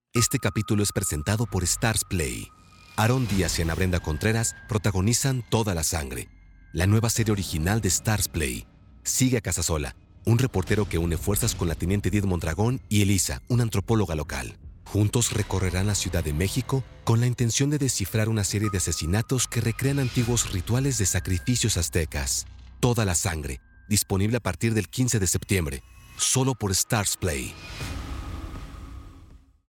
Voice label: spanish latin american movie trailers